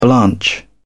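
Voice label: "blanch", British English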